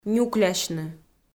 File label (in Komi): "нюклясьны", Komi